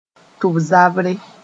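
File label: "tʋb-zabre", Mooré; otitis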